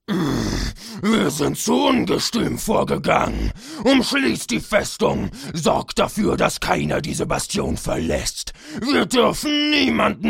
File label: GERMAN